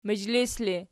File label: "mizhlis", Archi